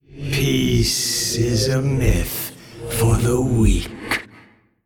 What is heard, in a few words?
Male Old God